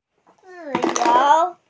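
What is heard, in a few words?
"freedom", Mandarin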